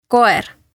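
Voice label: "koer", Estonian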